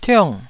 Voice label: "tiong4", taiwanese Syllables

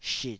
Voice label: "shit3", taiwanese Syllables

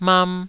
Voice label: "mam2", Taiwanese syllable

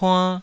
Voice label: "puann2", taiwanese

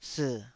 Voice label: "sii3", Taiwanese

